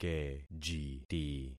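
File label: "kejiti", concatenated syllables; Affixing experiment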